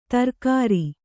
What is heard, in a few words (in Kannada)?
"ಧಾರಾಗ್ರ", Kannada